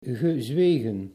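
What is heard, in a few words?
"gezwegen", Dutch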